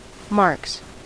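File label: "marks", US English; pronunciations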